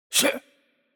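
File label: Chinese attack light voice; bloom minigame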